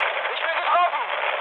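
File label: German aircraft destroyed mood med, speech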